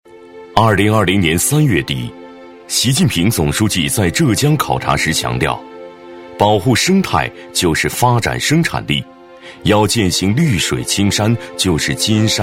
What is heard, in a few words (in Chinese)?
男 稳重从容; 高端大气; 年轻稳重